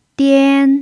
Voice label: "dian1", chinese pinyin